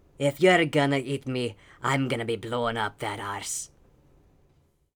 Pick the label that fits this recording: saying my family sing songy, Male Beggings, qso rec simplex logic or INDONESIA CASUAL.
Male Beggings